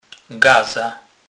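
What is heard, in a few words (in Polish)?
"gaza", Kurpie dialect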